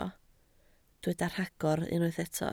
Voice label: "rhagor", Welsh

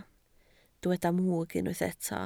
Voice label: "mwg", Welsh

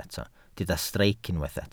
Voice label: "streic", welsh

